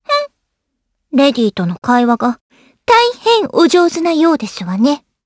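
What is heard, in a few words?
voice, Japanese; Aisha; kingsraid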